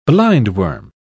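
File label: "blindworm", British English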